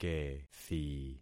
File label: "kethi", concat syllables; Affixing experiment